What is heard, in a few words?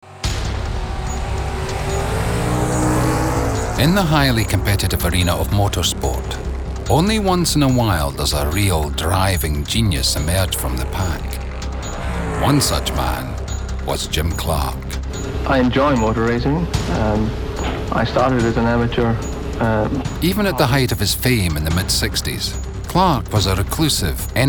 narrative montage